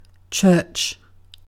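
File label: "church", British English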